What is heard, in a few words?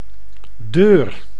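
"deur", Dutch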